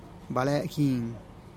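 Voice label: "bale gin", Teotitlán del Valle Zapotec; ripe nanche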